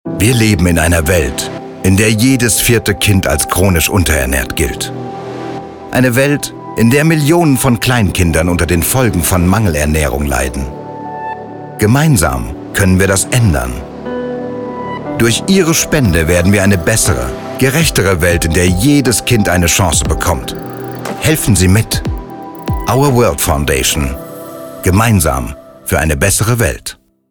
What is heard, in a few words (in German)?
Werbung; Foundation NGO